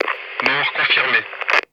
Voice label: French Killed; Kill Confirmed (male)